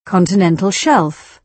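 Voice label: "continental shelf", British English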